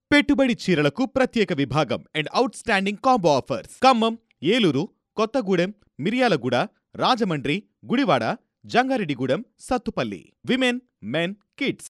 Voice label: Telugu, Male

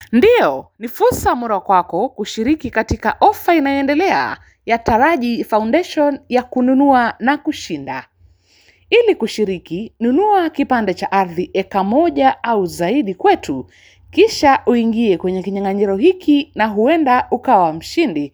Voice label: Swahili Female

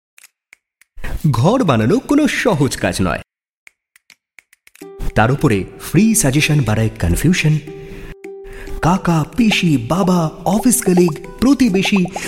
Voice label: Bengali, Male

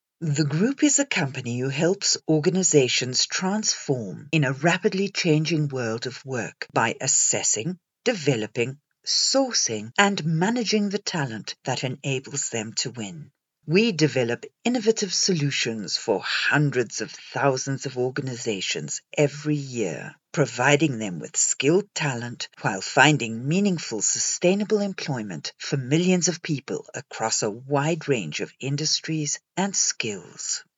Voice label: English South African, Female

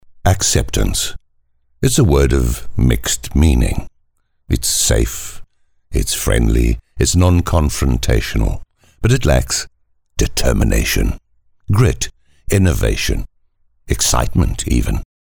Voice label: English South African, Male

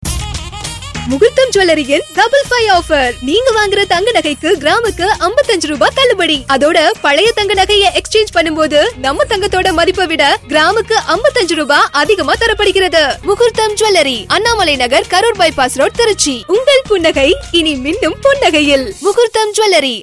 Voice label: Tamil, Female

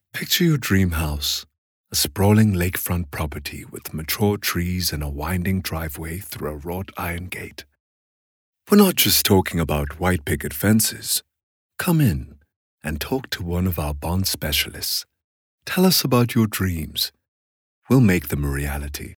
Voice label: English South African, Male

